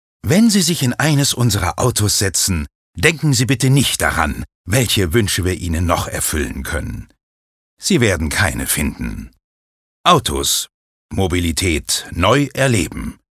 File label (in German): Werbung Dynamisch